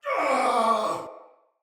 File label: Death Soldier Male; Sinecure Soldier Male A